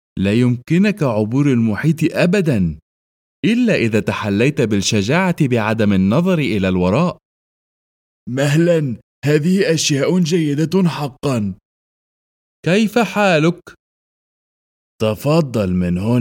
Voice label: arabic voice video game